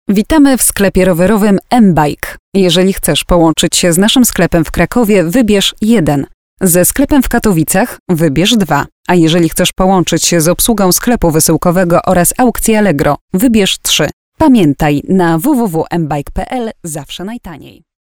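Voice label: Polish, female, narration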